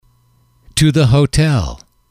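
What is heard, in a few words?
to the hotel, English, male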